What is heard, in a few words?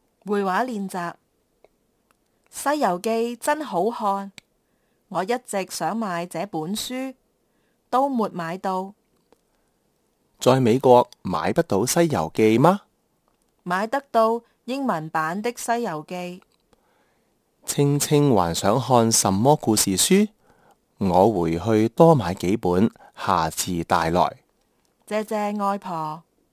conversation, Kantonese